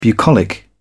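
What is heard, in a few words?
"bucolic", English, British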